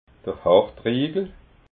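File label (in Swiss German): "hartriegel", Alsatian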